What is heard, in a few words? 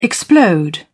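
"explode", English, British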